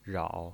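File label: "rao", Mandarin Chinese pinyin voice, third tone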